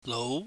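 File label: "lou", Cantonese, mid rising tone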